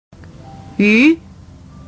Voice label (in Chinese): "鱼", Chinese